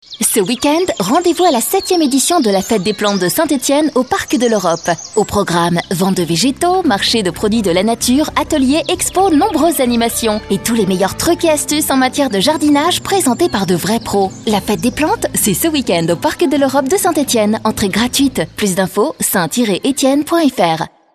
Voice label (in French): radio pub spot; evenements